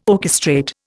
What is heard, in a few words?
"orchestrate", Indian